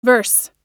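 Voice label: "verse", American English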